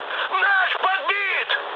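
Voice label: Russian aircraft destroyed mood high, speech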